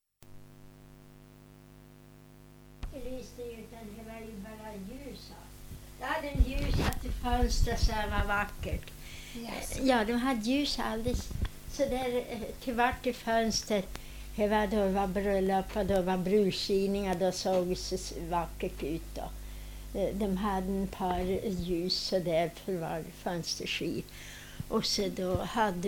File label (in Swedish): dialekter; Folkminnen; Svenska sagor